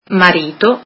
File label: italian husband